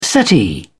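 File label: "settee", British English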